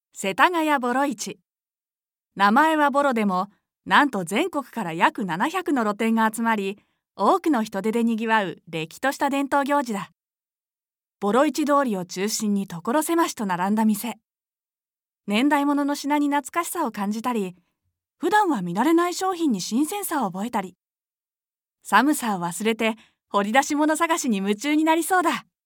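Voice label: narration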